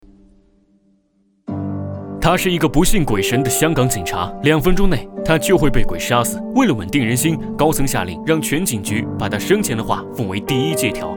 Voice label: 悬疑电影解说

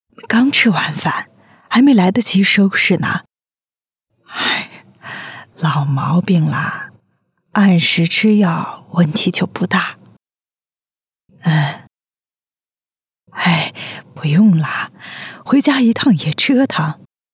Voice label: 角色老人自然素人

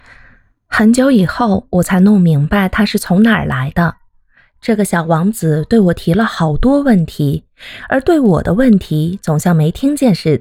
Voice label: 角色演绎小王子

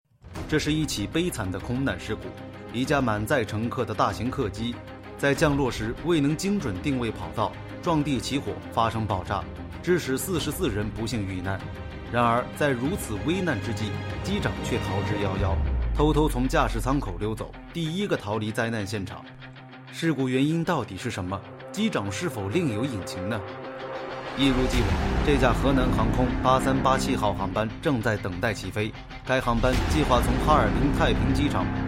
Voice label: 有力度

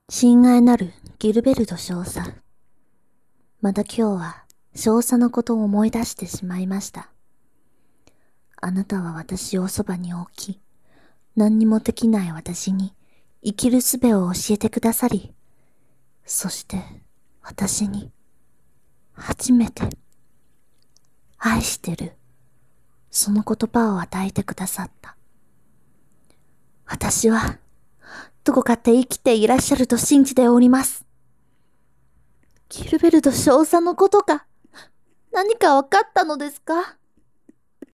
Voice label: 日配

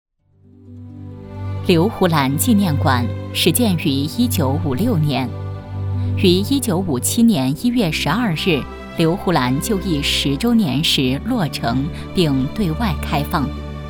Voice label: 庄重 纪念馆 滤波去齿 最大化声音 解说

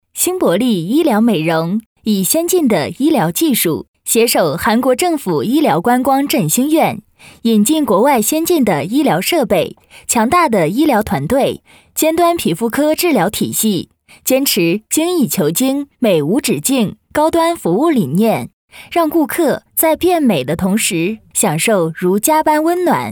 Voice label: 解说医疗美容

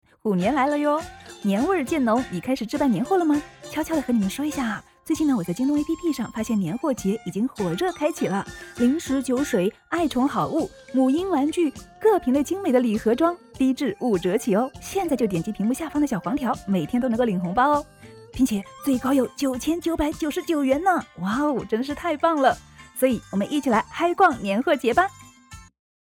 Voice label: 年货广告

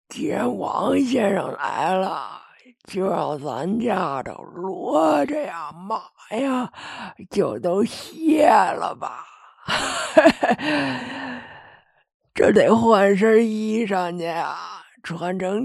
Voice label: 声展老太太

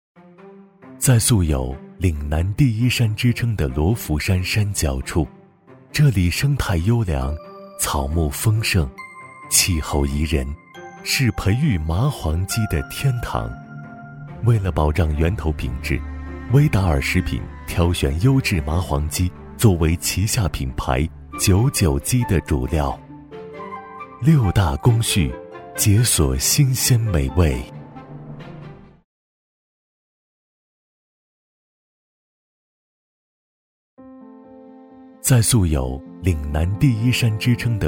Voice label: 广告 食品; 威达尔食品玖玖鸡

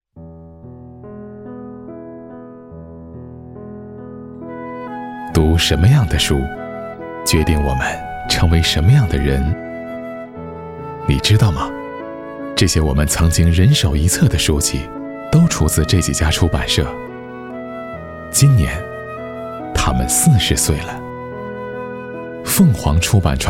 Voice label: 温情 讲述; 真人商业配音 温情讲述 凤凰出版社

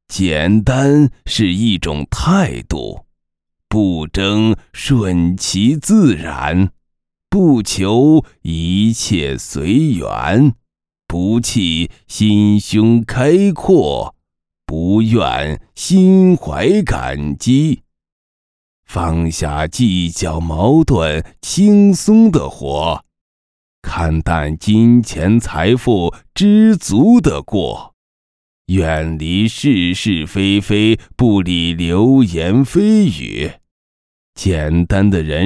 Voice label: 角色老人

